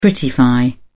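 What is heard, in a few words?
"prettify", British English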